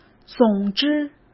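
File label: "总之" Mandarin Chinese; chinese-flashcards; hsk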